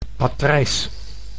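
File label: "patrijs", Dutch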